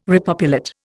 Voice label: "repopulate", Indian